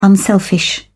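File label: "unselfish", British English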